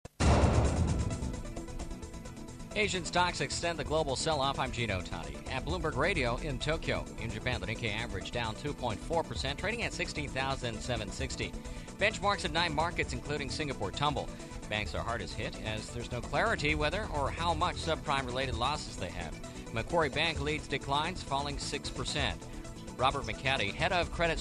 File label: news